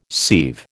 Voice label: "sieve", American

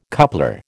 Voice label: "coupler", American

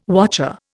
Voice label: "wotcher", Indian

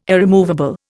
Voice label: "irremovable", Indian

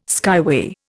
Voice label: "skyway", Indian

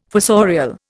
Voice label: "fossorial", Indian

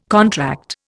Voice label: "contract", Indian

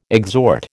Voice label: "exhort", American

